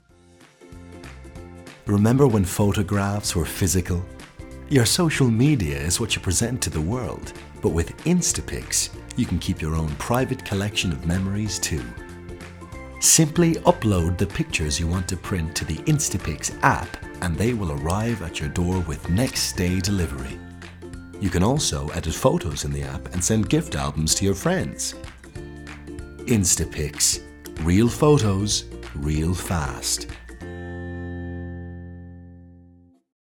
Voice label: Bright Ad; Instapix